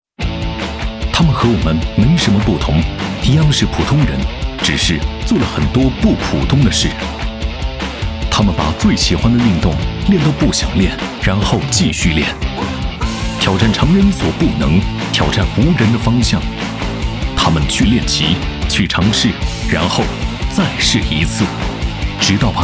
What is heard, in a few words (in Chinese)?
男, 科技; 挑战者的故事